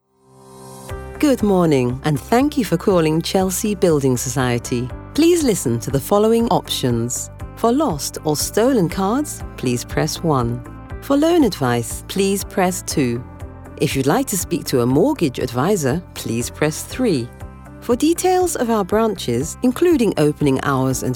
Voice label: IVR Phone Message; Chelsea Building Society